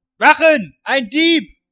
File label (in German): Dieb jung